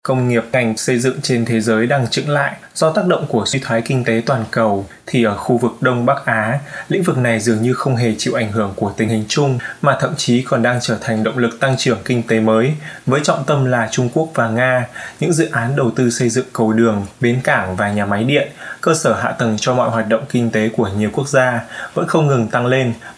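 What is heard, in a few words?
Vietnamese, male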